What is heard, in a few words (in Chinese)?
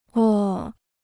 "窝", Chinese